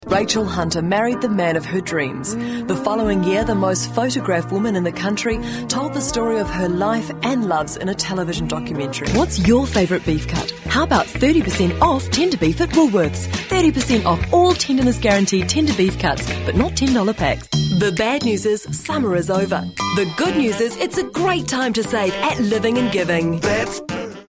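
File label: English New Zealand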